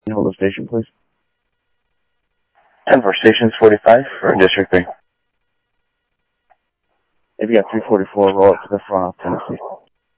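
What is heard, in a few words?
Sheriff West County, scanner audio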